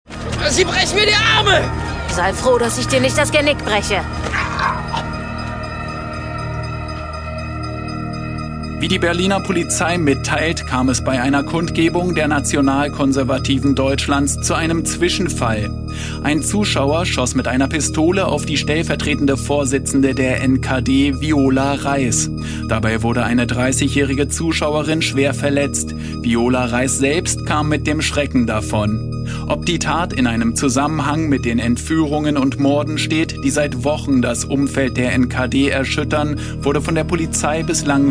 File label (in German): Hörspiel Ausschnitt; Roch